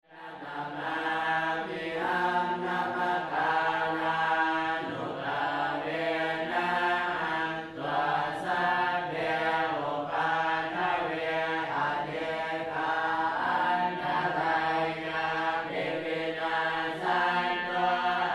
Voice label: monks Luang Prabang